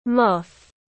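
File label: "Moth", English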